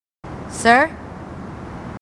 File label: marines female, marine tough